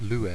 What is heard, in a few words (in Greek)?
"lue", Greek